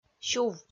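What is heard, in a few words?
"сюв", Komi, female; kpv audio dict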